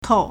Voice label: "kou4", Chinese

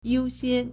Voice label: "you1 xian1", Chinese

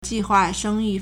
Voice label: "ji4 hua4 sheng1 yu4", Chinese

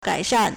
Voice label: "gai3shan4", Chinese

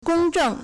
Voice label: "gong1 zheng4", Chinese

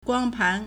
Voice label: "guang1pan2", Chinese

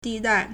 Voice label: "di4 dai4", Chinese

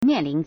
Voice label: "mian4lin2", Chinese

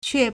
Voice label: "que4", Chinese

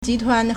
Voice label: "ji2 tuan2", Chinese

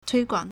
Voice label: "tui1guang3", Chinese

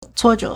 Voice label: "cuo4 zhe2", Chinese

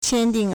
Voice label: "qian1ding4", Chinese

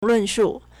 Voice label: "lun4shu4", Chinese

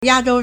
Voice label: "ya4zhou1", Chinese

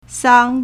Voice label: "sang1", Chinese

